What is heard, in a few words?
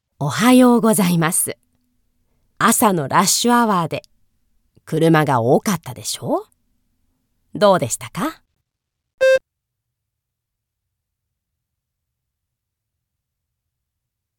japanese conversation; ap japanese language and culture; speaking prompts 2025